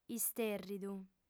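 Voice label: "istèrridu", female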